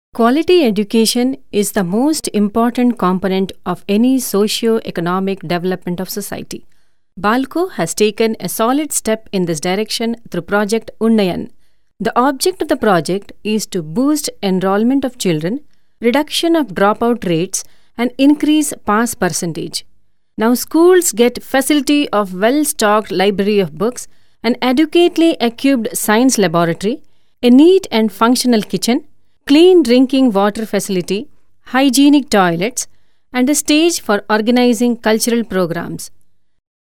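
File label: English Indian Andhra Female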